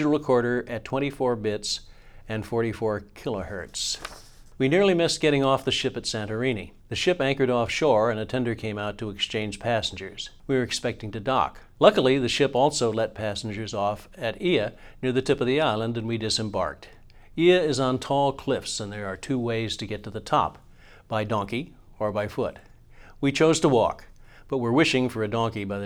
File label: AT Pro7a Left AT 803b Right male, microphones